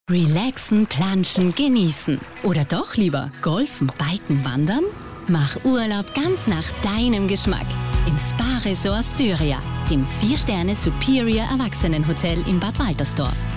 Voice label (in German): Frau OFF